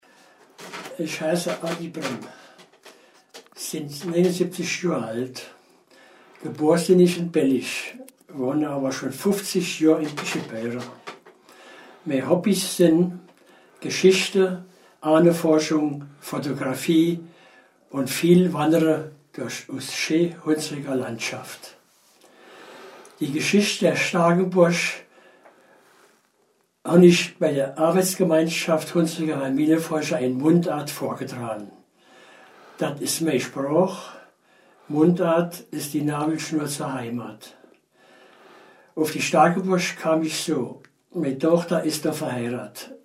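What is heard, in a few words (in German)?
vorstellung, O-Ton Hunsrück; starkenburg; Belg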